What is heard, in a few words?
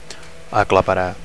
"aclaparar", Catalan; 1. to squash; to crush; to flatten; 2. to knock down; 3. to overwhelm